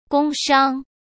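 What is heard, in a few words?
"工商", Mandarin Chinese; chinese-flashcards; hsk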